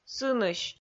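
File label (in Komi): "сынысь", Komi, female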